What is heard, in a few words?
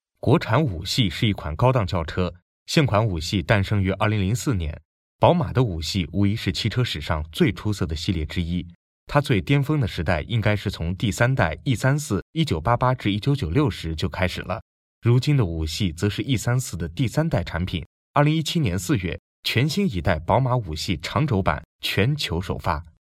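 Chinese Mandarin, male, narration remote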